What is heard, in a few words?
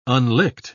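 "unlicked", English, British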